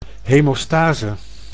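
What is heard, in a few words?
"hemostase", Dutch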